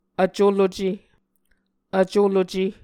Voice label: "vculuce", Muscogee

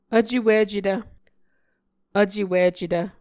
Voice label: "vcewicetv", Muscogee

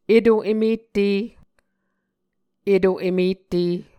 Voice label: "eto-em-ette", muscogee

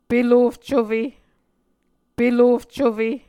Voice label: "pelof cufe", Muscogee; Mvskoke Words with Voicing Consonants; A Dictionary of Creek/Muskogee; SIL International; Onvkv Ohhvyayices

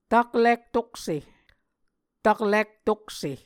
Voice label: "taklik-tokse", Muscogee

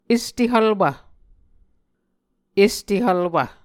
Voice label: "este hvlwv", Muscogee

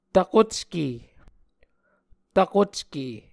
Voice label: "tvkocke", Muscogee

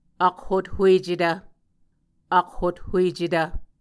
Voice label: "akhothuecetv", Muscogee

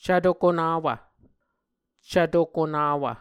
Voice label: "cvto konawv", muscogee

